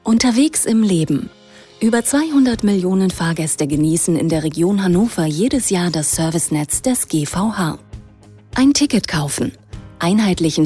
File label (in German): Produktfilm; GVH